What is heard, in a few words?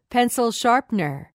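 "pencil sharpener", English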